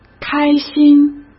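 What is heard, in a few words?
"开心", Mandarin Chinese; chinese-flashcards; hsk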